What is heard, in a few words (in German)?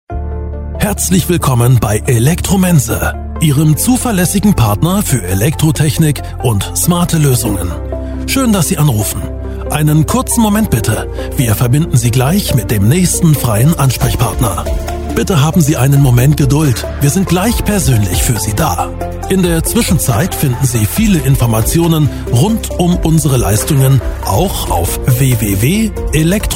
Telefonwarteschleife